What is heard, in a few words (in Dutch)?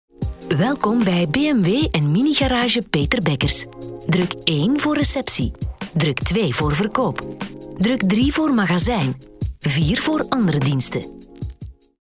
IVR telefonie; Telephon; BMW